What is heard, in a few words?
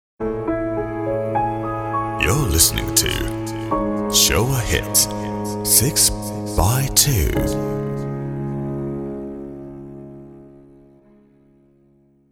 en